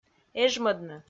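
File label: "эжмӧдны", Komi, female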